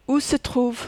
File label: "ou se trouve", French